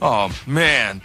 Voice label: aw man, announcer; ooh ahh